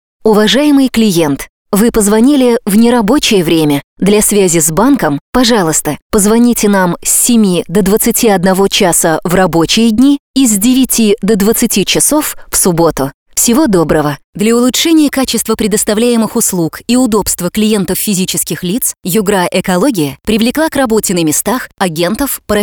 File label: IVR